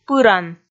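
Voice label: "пыран", Komi, female; kpv audio dict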